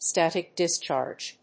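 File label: "static discharge", English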